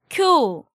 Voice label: "Kyo", Korean

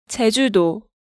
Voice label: "jejudo", Korean; Hangeul sounds

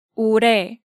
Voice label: "Orae", Korean

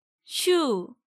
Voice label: "Syu", Korean

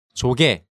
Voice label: "Joge", Korean

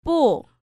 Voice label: "Ppo", Korean

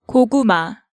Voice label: "Goguma", Korean

